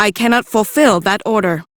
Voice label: Reject, Female; en; Warframe; Railjack Crew; Cephalon Suda

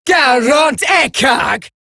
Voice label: Player Spotted, Female

